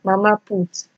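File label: "mama put", Nigerian Pidgin, female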